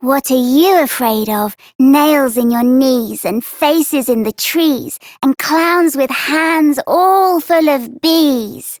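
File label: Child Rhymes Fear Child Female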